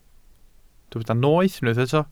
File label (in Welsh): "noeth" Welsh